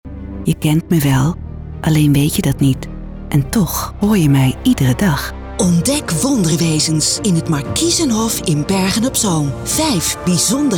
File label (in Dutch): Commercialdemo, stemvoorbeelden